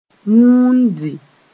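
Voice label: "wũundi", Mooré; curl up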